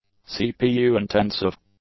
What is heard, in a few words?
"CPU intensive", English; adjectives